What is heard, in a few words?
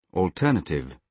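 "alternative", British English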